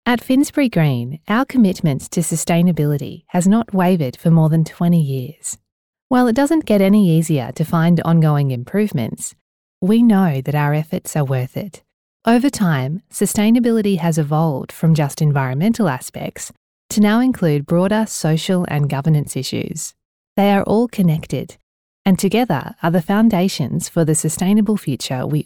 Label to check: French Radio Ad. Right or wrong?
Wrong